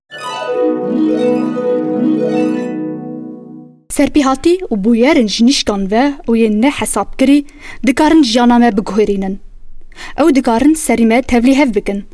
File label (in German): Kurmanci; NAWA